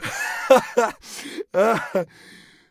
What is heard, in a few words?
scav mutter, voice; Escape from Tarkov; scav2